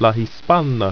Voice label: "hispan", ido

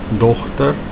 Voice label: daughter, Afrikaans

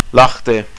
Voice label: "open", Estonian

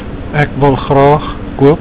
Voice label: ek wil graag koop, Afrikaans

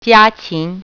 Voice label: "poultry", Mandarin

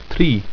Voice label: "tri", ido

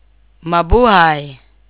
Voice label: "cheers", Tagalog

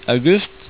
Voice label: "august", Norwegian

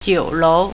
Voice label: restaurant, mandarin

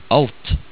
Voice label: "ALT", norwegian

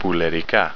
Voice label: Poultry, Greek

